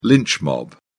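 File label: "lynch mob", English, British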